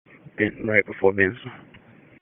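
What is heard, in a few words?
Dispatch, p25; WSO DISP 1; wake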